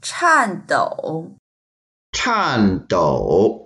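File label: "chan4dou3", Mandarin Chinese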